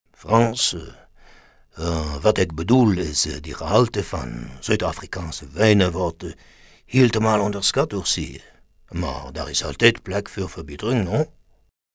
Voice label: AFRIKAANS FRENCH